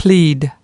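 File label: "plead", US English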